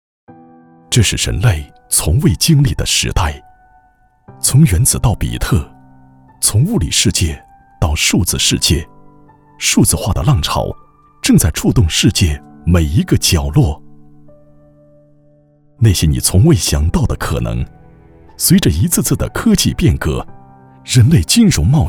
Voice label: 男 科技产品宣传片; Hantes

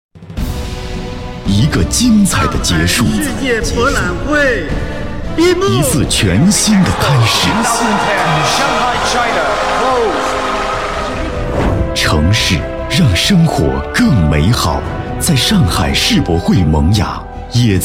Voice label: 男 片头; 上海世博会-难说再见

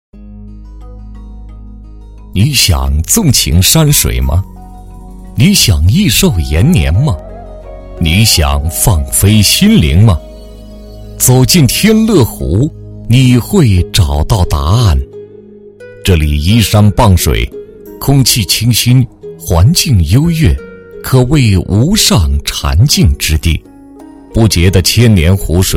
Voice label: 男 企业 水上餐厅 养生 美食专题; 天乐湖水上餐厅

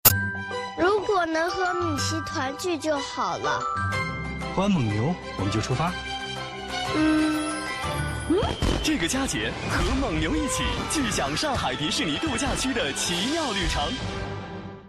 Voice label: 男,年轻梦幻; 蒙牛迪士尼

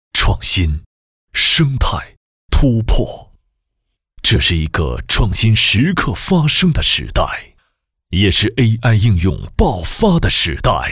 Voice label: 男 品质磁性 招商会开场

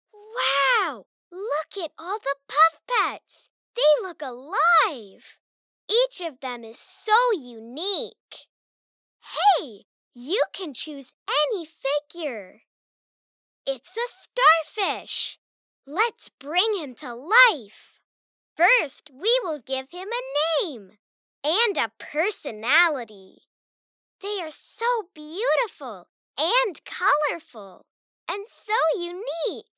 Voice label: 外籍童声女 玩具制作

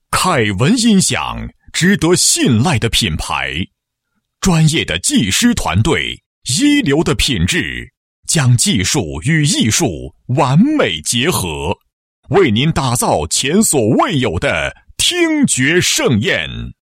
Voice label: 男 广告 音响广告; 凯文音响

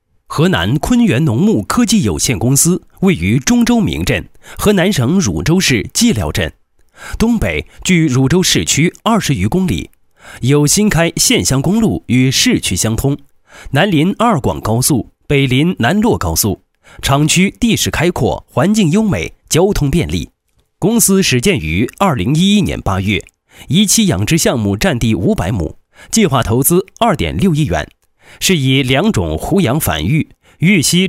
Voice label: 男 农牧, 解说; 坤元农牧

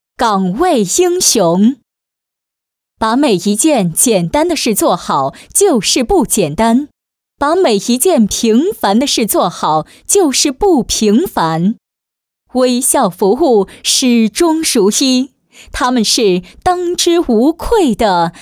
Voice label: 女 颁奖 岗位颁奖

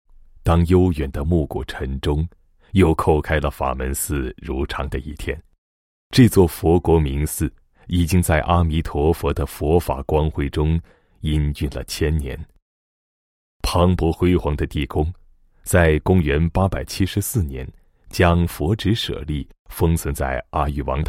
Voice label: 男 专题 记录 历史感解说, 国语男声配音; 法门寺

